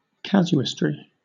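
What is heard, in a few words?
"casuistry", British English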